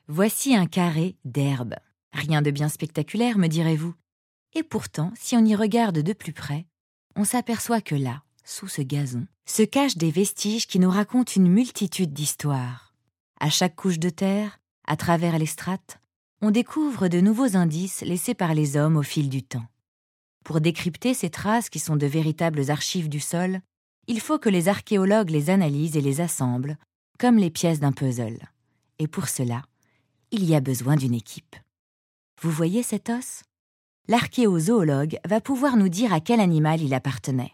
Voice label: AudioGuide; Musée Nantes